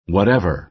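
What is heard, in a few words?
"whatever", American English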